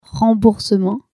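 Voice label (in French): "remboursement", French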